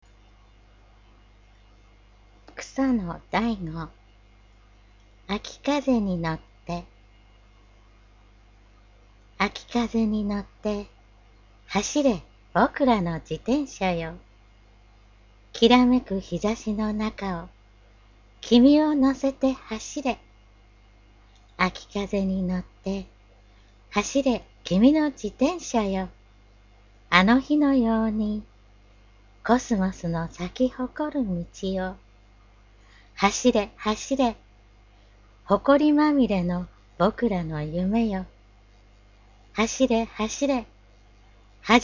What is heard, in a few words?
poem read; akikaze